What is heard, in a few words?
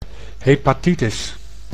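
"hepatitis", Dutch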